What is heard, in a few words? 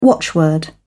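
"watchword", British English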